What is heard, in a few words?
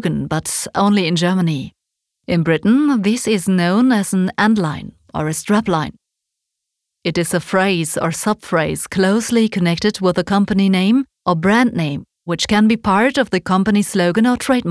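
UK English, Claim Neutral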